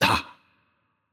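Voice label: Italian, hurt light; VO; bloom minigame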